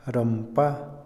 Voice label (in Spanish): comerciante, Otomí, hombre; Posesión; INALI